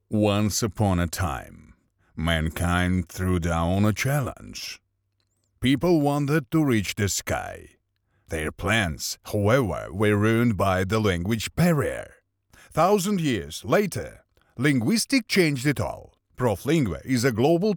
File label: ENG